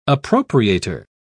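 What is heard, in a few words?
"appropriator", British English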